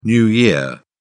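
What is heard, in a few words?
"new year", British English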